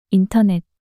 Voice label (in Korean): "인터넷" 단어 여자